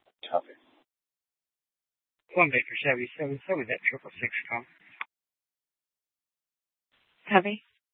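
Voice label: Mesa Police Department Superstition Dispatch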